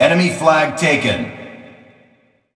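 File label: pa voice enemy flag taken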